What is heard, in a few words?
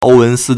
"Ōuwénsī", Chinese